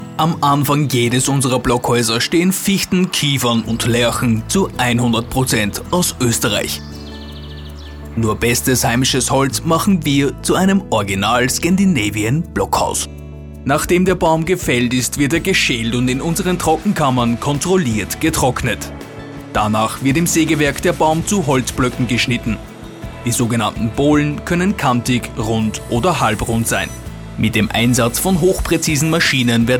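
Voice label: Austrian German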